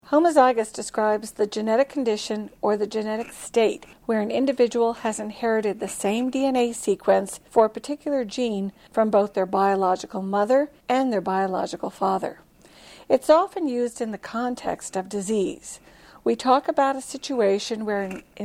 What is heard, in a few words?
homozygous narration